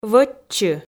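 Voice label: "вӧтчы", Komi, female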